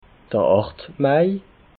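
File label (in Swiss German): "acht mai", Alsatian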